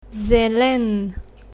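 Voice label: "green", Bulgarian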